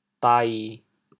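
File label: "dai7", Teochew, chaoyang; 事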